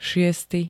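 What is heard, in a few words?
"šiesty", Slovak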